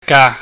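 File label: "ga", Cantonese, mid tone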